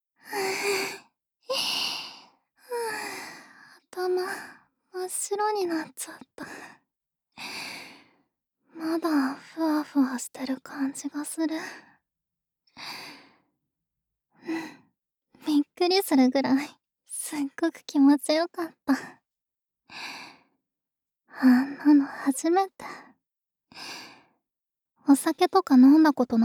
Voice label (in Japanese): ピロートーク, ASMR